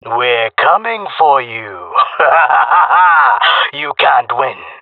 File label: round winning, male; jungle; Counter Strike Model Pack; CS:GO